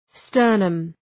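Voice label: "sternum", English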